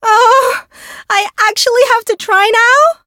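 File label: Voice encounter fear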